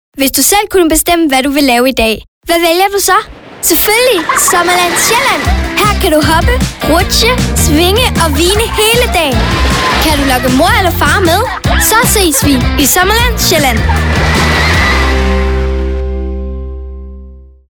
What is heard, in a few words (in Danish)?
Boernestemme; Sjaelland